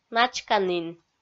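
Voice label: "начканін", Komi, female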